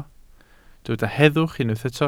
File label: "heddwch", Welsh